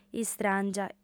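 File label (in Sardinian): "istràngia", Sardinian, female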